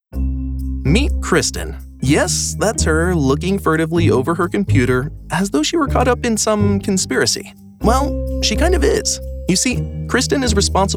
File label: Explainer Facebook